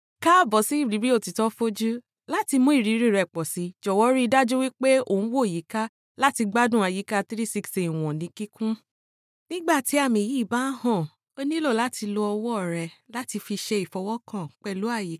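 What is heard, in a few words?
Yoruba, Nigeria, female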